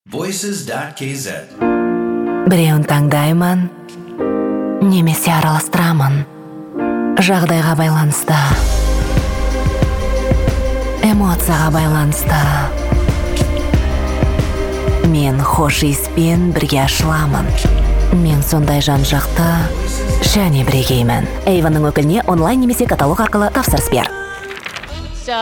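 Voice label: Kazakh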